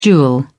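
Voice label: "jewel", British English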